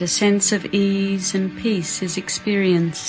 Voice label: a sense of ease and peace, VOCALS and SPOKEN WORD, FEMALE; MISC and SPOKEN WORD